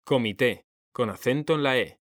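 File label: "COMITÉ", Spanish; mekanta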